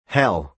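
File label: "hell", American English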